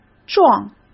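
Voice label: "撞", Mandarin Chinese; chinese-flashcards; hsk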